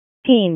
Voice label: WC FD ALERT, p25; wake p25